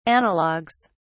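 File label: "analogs", British English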